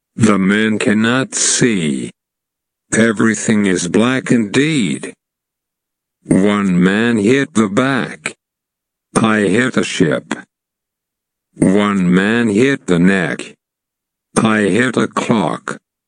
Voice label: Slow